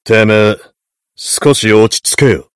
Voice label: heavy jeers